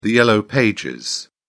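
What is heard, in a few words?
"yellow pages", British English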